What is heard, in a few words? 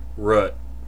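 "root", US English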